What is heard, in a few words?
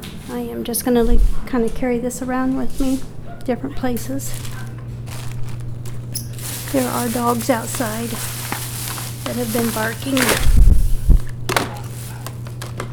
mans voice